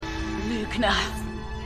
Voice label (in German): Frau; The 100